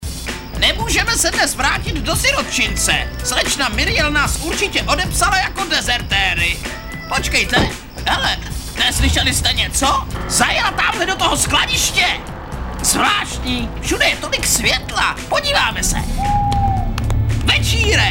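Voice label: male, Czech, drama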